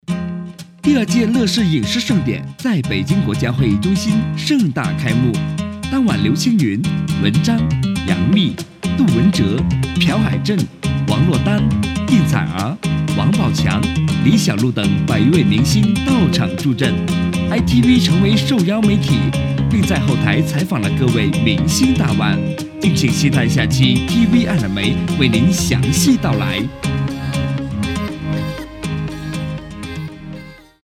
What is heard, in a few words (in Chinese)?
新闻播报娱乐新闻